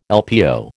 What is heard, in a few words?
"LPO", American